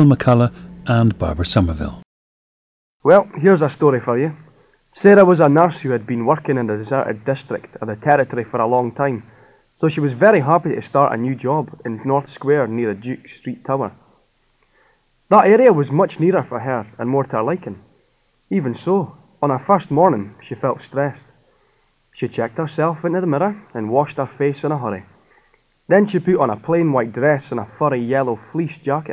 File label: scotland, dialects; speech